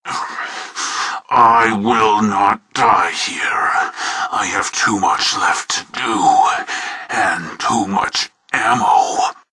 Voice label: heavy pain; zero